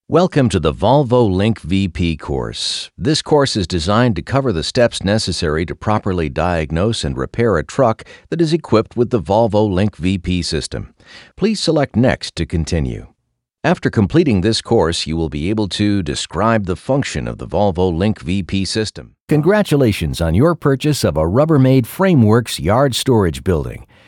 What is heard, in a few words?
e-Learning Narration